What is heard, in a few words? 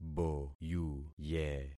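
"boyuye", concatenated syllables; Affixing experiment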